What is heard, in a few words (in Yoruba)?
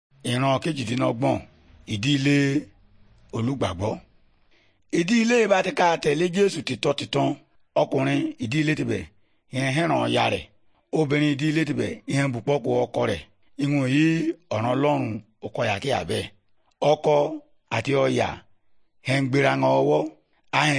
good news yoruba, bible